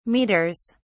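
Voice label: "meters", English, British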